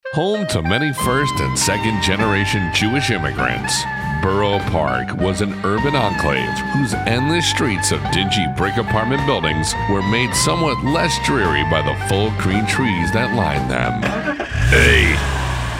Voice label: AUDIOBOOK